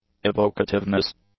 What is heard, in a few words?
"evocativeness", English